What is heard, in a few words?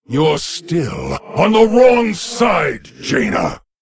Male Lich Start; en